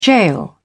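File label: "gaol", English, British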